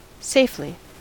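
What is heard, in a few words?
"safely", American English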